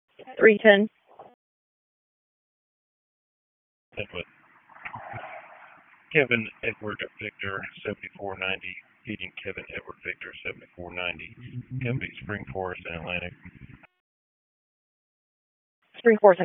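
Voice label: Raleigh Police Northeast District Dispatch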